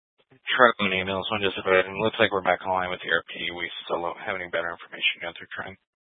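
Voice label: Fire Dispatch, scanner audio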